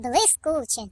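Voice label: "Brilliant", British English; hedgewars; Default uk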